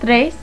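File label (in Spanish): "tres", Spanish; Letter World v1.98a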